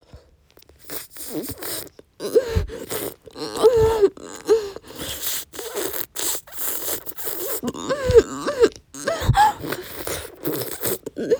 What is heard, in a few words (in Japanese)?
セリフ, ASMR